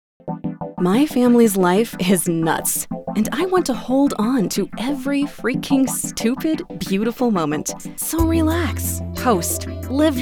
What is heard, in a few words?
english american commercial, snarky